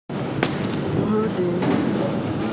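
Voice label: "hu2die2", Mandarin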